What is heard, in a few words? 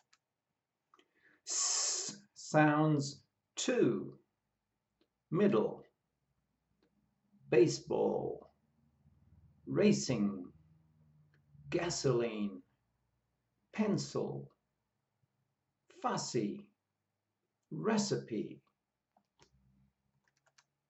S sounds, pronunciation of australian english